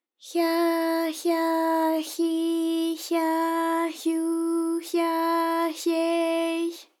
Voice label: hya hya hyi hya hyu hya hye hy, JPN; ALYS

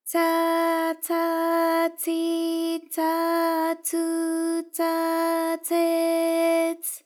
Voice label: tsa tsa tsi tsa tsu tsa tse ts, JPN; ALYS

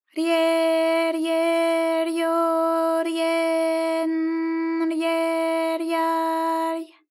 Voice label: rye rye ryo rye n rye rya ry, JPN; ALYS

